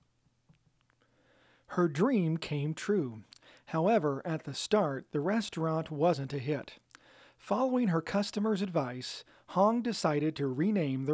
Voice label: Noodles SCRATCH VO